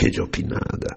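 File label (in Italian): Che giopinada, bergamasco; [Trad.: Che stupidaggine]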